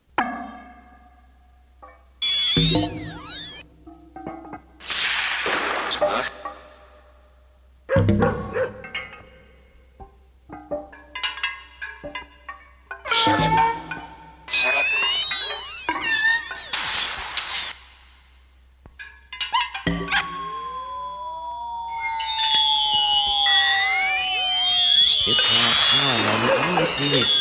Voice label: Newscaster